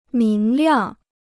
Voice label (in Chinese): "明亮", Chinese